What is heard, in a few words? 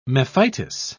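"mephitis", British English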